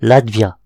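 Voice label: "latvia", Breton; gcompris; geography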